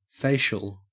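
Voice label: "facial", Australian English; pronunciations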